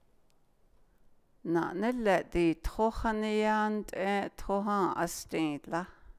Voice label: I went for water but to my dismay I found the wa, Navajo